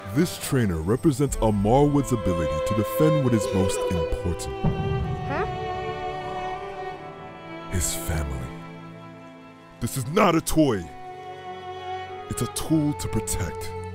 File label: Character Demo SFX